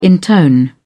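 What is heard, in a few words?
"intone", British English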